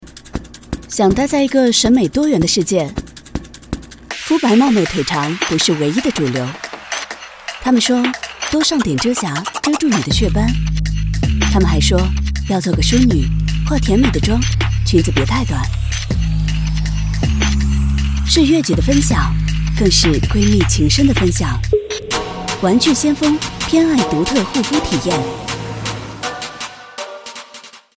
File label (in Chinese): 广告叛逆时尚